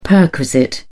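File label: "perquisite", British English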